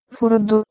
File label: "furdu", Proto Germanic